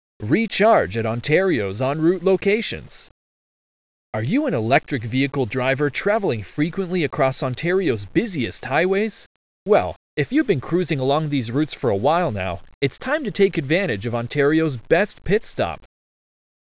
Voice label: Blog Voiceover; Recharge at Ontarios ONroute locations